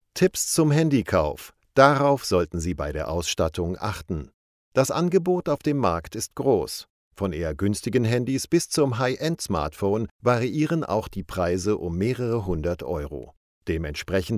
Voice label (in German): Dokumentation